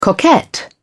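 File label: "coquette", British English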